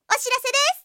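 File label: Japanese anime girl voice sounds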